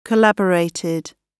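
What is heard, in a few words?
"collaborated", British English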